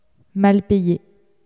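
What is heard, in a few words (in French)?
"mal payé" French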